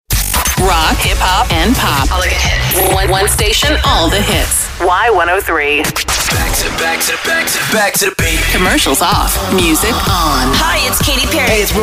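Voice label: radio female contemporary hit radio; radio imaging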